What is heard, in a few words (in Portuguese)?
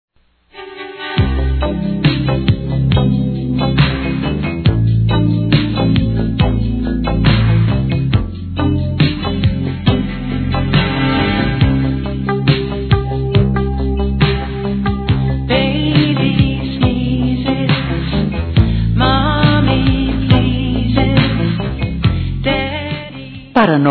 ANIV. CLIENTE F